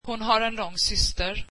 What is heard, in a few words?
swedish she has a tall sister